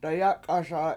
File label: "dayAk7aSa" In The Warm Water, Washo